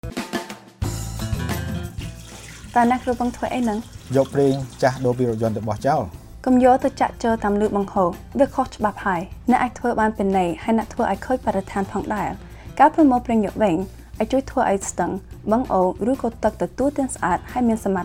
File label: Recycling Cambodian